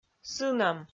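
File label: "сынӧм", Komi, female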